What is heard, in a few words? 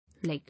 "Leg", English